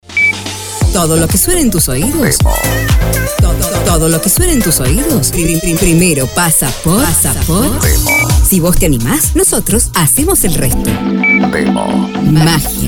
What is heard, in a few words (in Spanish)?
Arte Todo el Año femenino